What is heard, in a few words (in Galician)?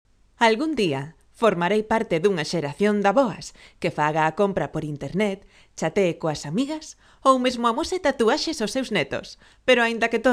locución galego; Augas de Mondariz